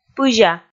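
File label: "пызя", Komi, female; kpv audio dict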